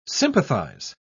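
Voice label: "sympathise", English, British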